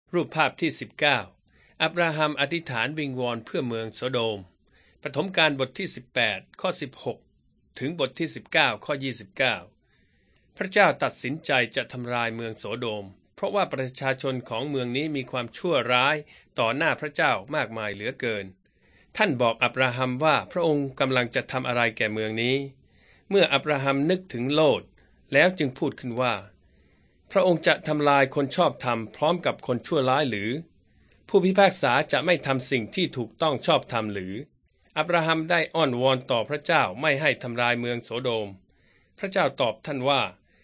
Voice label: beginning with god thai central

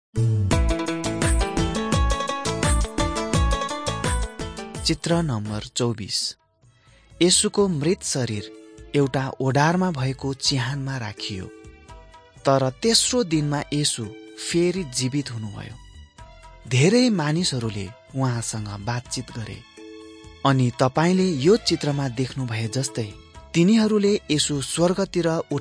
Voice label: mighty men of god nepali

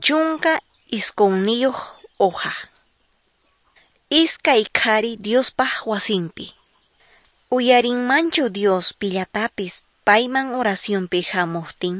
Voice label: quechua norte de bolivia apolo

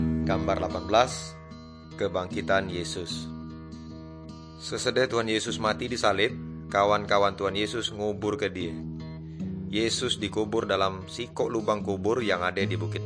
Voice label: Good News Melayu Tanjung Raja; Malay Christian

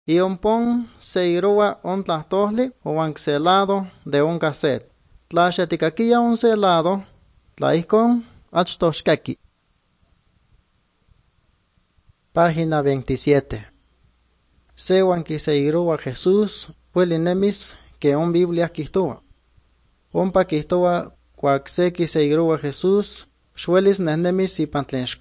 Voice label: good news, nahuatl guerrero chilapa

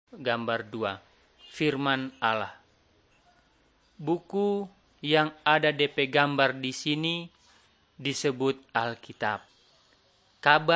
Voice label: malay manado; good news

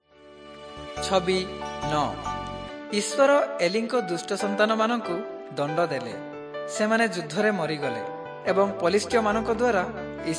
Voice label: southern oriya; servants of god

